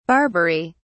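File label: "barbery", British English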